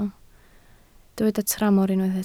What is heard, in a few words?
"tramor", Welsh